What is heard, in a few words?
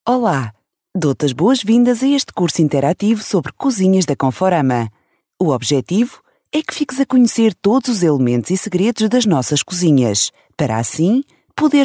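Portuguese, female, E-Learning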